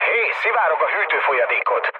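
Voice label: Hungarian damaged water mood low, speech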